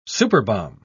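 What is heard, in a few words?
"superbomb", English, uk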